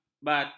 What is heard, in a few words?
"batal", ms